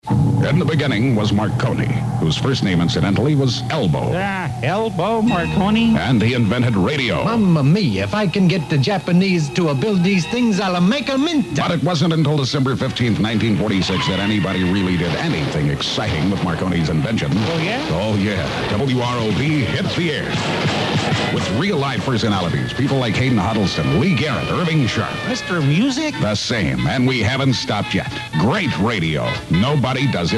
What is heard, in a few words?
Promo